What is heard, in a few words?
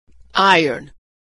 "iron", pronunciation